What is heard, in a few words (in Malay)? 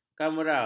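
"kamera", Malay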